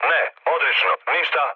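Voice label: Serbian chat; War Thunder; speech srb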